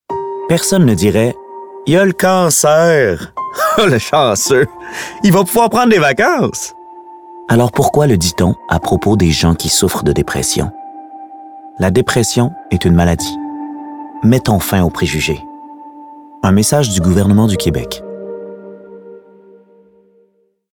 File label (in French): Social FR Depression voiceovers